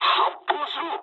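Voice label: Japanese attack position mood med; War Thunder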